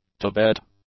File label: "Tibet", English; nouns